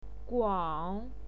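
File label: "guang", Mandarin Chinese pinyin, third tone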